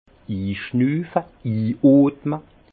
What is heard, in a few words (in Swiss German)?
"inschnufe", Alsace; vin; sentir humer